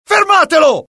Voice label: alert, dialogue IT; mohaa; attack